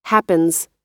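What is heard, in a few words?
"happens", American English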